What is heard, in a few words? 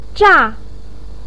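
"zha4", Mandarin pinyin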